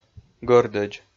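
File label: "гӧрдӧдз", Komi-Zyrian, male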